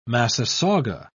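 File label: "massasauga", British English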